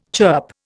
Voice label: "chirp", Indian